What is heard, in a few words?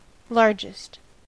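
"largest", American English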